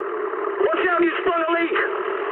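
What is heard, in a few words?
damaged water mood high, speech English; War Thunder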